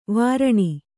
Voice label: "ವಾರಣಿ", Kannada